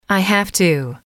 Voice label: "I have to", English

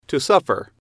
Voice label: to suffer, English

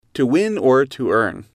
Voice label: "to Win Earn", English

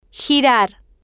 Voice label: "girar", spanish

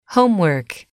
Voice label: "homework", English

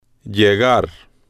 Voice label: "llegar", Spanish

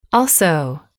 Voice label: "also", english

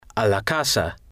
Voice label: "a la casa", spanish

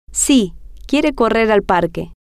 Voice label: si Quiere Correr Parque, Spanish

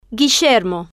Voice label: "guillermo", spanish

